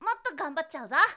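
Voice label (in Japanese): "mottoganbattyauzo", Japanese boy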